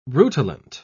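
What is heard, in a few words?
"rutilant", British English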